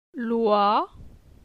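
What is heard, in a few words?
"Loi", French; phonetique; la syllabe oi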